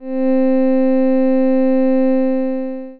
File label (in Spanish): e sint, LPC